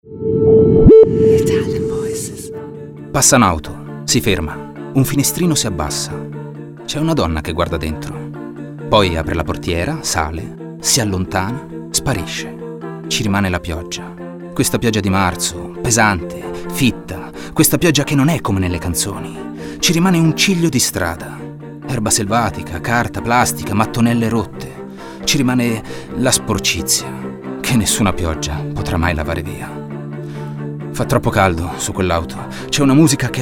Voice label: ITALIAN VOICES, male voices; Naked Reel